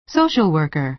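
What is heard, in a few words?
"social worker", American English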